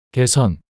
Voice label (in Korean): "개선" 단어 남자